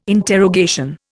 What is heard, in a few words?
"interrogation", Indian